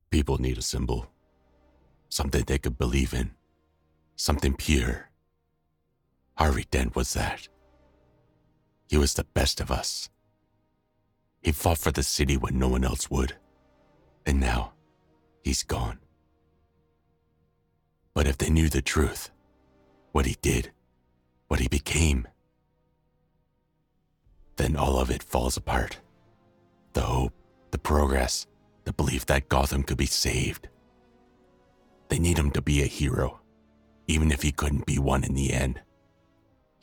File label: Monologue; Dark Knight Monologue